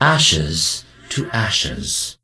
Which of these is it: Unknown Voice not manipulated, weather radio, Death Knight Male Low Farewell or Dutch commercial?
Death Knight Male Low Farewell